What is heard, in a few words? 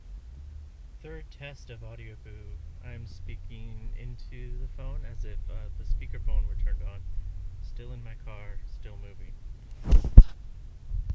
speakerphone test